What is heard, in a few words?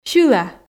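"сьыла", Komi, female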